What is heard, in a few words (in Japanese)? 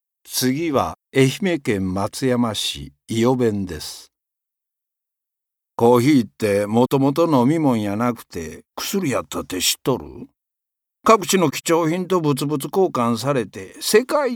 方言